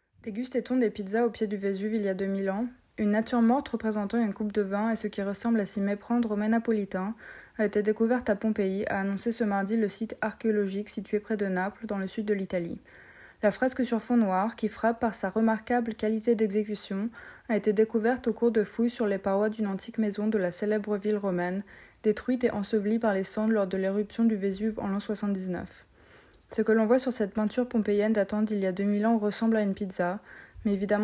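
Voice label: Francais Language, French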